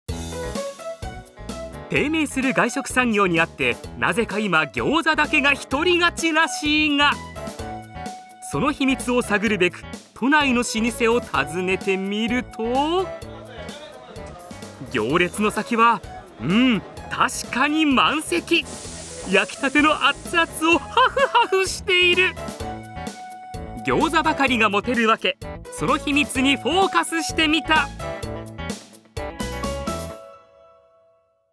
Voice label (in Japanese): voice sample ニュース取材風